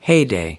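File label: "heyday", American English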